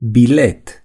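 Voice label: "ticket", Romanian; gcompris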